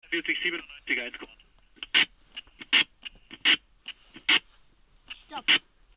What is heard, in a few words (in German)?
funkspruch